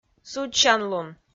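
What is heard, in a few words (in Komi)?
"судзсянлун", Komi, female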